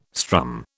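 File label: "strum", British English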